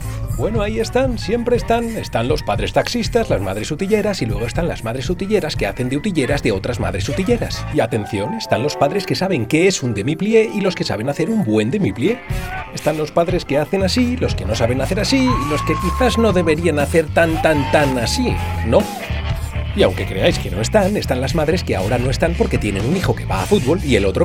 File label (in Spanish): publi dinamica